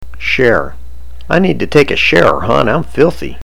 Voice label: "share", baltimorese